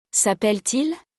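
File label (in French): "s appelle t il", French; conjugaison; le verbe s'appeler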